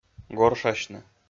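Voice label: "горшасьны", Komi-Zyrian, male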